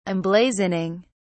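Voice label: "emblazoning", British English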